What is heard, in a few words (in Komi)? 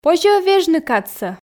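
позьӧ-ӧ вежны кадсӧ, Komi, female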